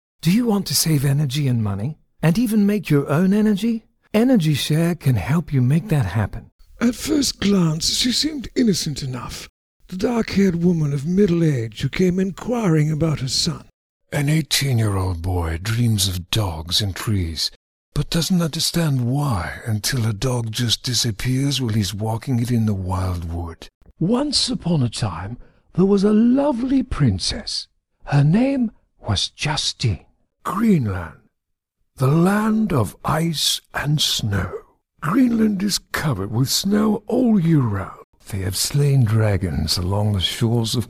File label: Brit Euro Asian Accents